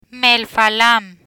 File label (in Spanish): "Melfalan", Spanish